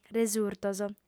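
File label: "resurtos", Sardinian female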